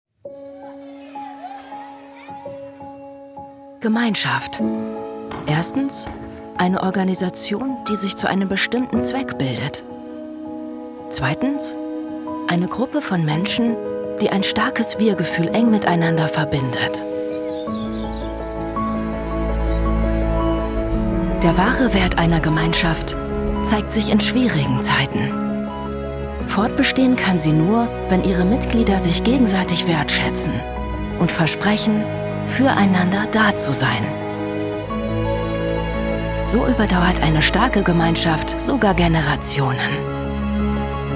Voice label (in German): deutsche version; debeka